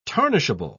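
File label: "tarnishable", British English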